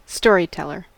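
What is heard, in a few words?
"storyteller", US English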